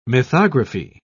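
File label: "mythography", British English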